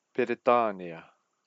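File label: "peretania", Māori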